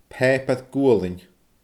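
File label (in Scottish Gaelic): "paaipear gualain", Scottish Gaelic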